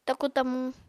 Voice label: "taku tamu", Apatani

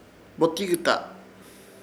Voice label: "Botikyta", Ishir Chamacoco; pastilla

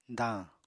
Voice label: "dañ", Apatani

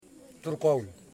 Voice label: Knees, yimas

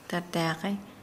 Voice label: Nukuoro; raise a flag

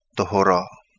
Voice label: Kapingamarangi; whale

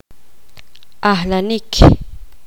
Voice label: poqomam; contar 1 2 3

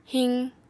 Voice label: "hiq", Apatani

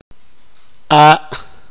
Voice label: pierna, sipakapense

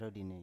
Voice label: "ro dine", Biak

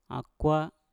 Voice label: "akwa", Mpur; ranting

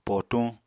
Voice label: "potuñ", Apatani

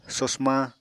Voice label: "sosma", apatani

